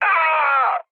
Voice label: damaged pilot, speech cze, mood low; War Thunder